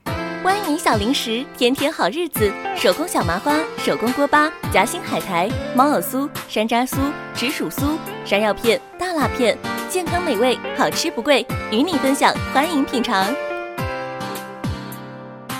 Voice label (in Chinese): 零食广告淘宝甜美风格